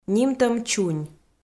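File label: "нимтӧм чунь", Komi-Zyrian, female; kpv audio dict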